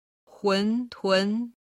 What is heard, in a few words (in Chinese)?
"huntun", Chinese